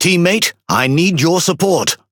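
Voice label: Pirate Speech Need Support Ship, English pirate; World Of Warships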